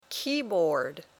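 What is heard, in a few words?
"keyboard", English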